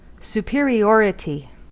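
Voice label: "superiority", US English; pronunciations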